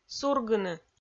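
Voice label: "сургыны", Komi-Zyrian, female; audio dict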